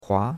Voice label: "hua2", chinois; Cours de Chinois